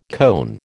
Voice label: "cone", American

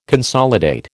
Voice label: "consolidate", American

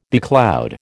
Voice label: "becloud", American

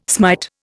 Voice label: "smite", Indian

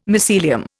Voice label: "mycelium", Indian

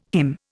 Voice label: "hymn", Indian

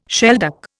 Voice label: "shelduck", Indian

